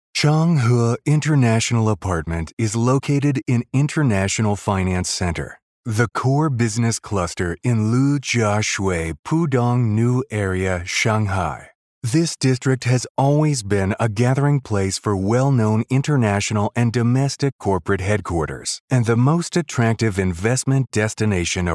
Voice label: 英语试音

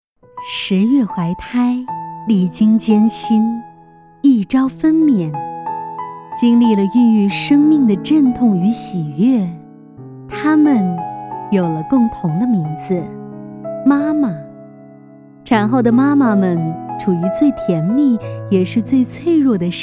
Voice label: 女,专题,温馨感; 产后护理

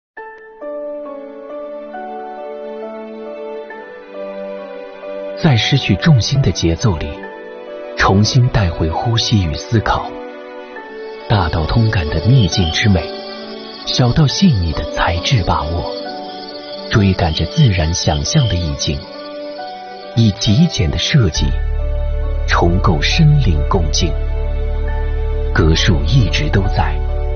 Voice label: 男 广告 品质感 谧静空间 瓷砖; 格墅瓷砖

